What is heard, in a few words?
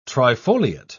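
"trifoliate", British English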